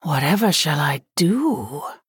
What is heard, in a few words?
Female Human Thinking